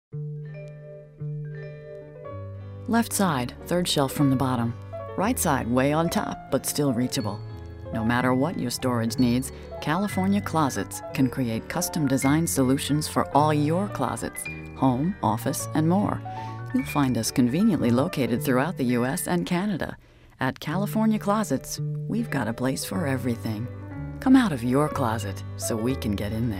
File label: Commercial Voiceover